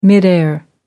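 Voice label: "midair", British English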